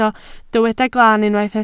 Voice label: "glan", Welsh